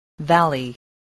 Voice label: "valley", English

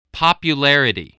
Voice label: "popularity", English

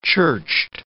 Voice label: "churched", English

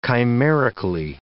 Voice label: "chimerically", English

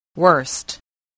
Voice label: "worst", voice, english

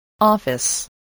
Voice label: "office", voice, spelling

